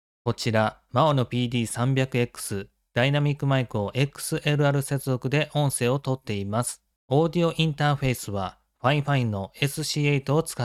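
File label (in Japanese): maono PD300X XLR接続音声; ダイナミックマイク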